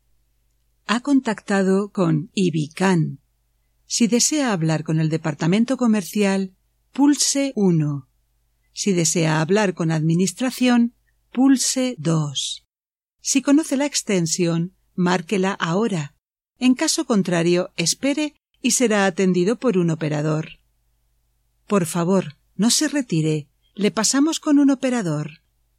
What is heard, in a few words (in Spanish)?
CENTRALITA